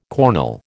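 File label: "cornel", American English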